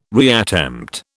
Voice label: "reattempt", British